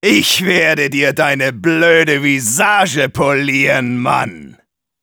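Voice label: taunts, German; Engineer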